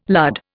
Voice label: "lud", Indian